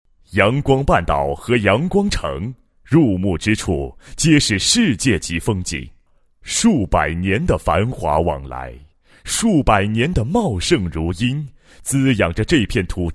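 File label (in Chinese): 男厚重大气-地产大气抒情, 国语男声配音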